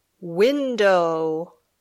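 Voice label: "window", English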